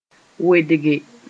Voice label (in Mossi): "wedge", moore